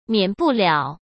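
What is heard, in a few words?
"免不了", Mandarin Chinese; chinese-flashcards; hsk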